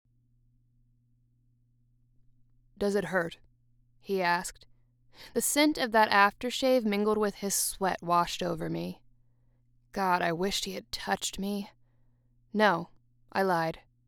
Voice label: YA Fantasy, 1st Person (M:F)